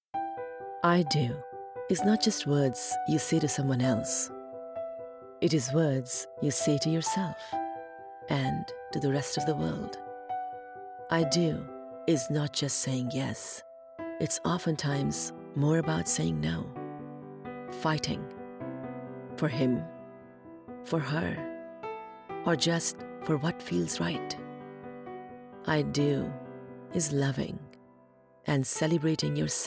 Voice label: Commercial; DeBeers